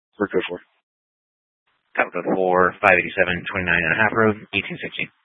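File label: Sheriff Dispatch, scanner audio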